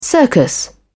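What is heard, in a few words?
"circus", British English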